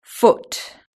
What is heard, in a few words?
"foot", british english